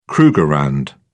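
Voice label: "krugerrand", British English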